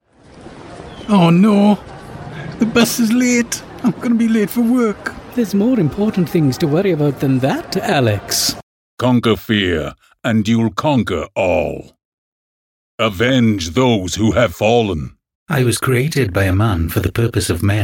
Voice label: welsh character